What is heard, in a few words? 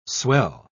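"swell", English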